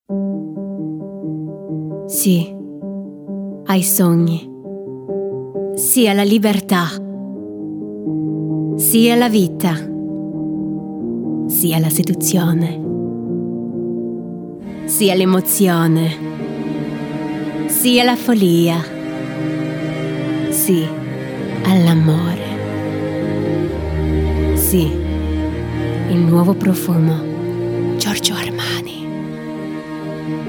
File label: Italien Voice; Armani